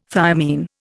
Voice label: "thiamine", Indian